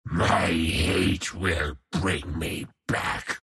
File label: troll death; troll warlord